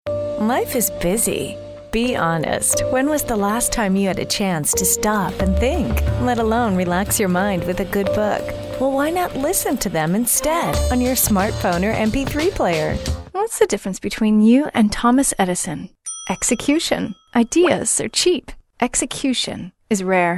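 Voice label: american us female narration; english; voice demos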